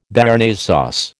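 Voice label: "Béarnaise sauce", American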